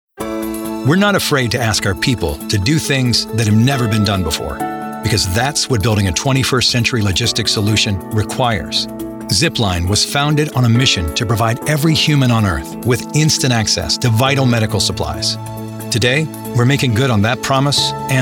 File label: Explainer Narration